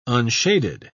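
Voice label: "unshaded", British English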